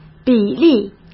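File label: "比例", Mandarin Chinese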